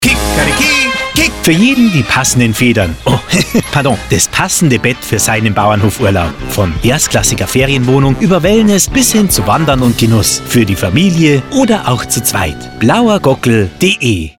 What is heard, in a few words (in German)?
Radiospot; Blauer Gockel; Reminder